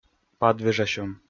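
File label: "падвежасьӧм", Komi, male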